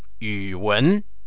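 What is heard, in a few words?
"yuwen", Chinese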